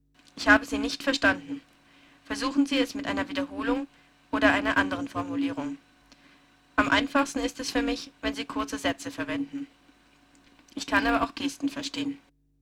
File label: Sine slow; SmartKom; Prototypen Stimme